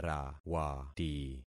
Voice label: "rawati", concat syllables; Affixing experiment